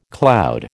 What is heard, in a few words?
"cloud", American